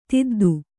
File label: "ತಿದ್ದು", Kannada